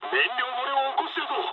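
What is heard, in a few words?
Japanese tank damaged mood low; War Thunder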